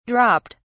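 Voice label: "dropped", British English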